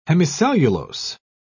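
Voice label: "hemicellulose", British English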